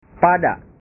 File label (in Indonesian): "pada", bahasa